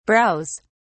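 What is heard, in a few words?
"brows", British English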